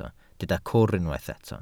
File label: "cwr", Welsh